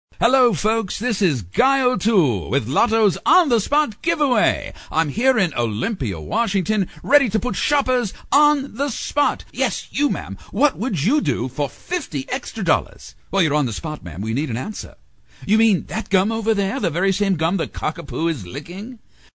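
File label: BRITISH COMMERCIAL ANNOUNCER; commercials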